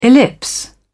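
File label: "ellipse", British English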